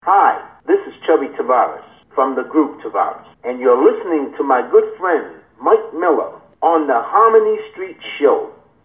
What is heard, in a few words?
promo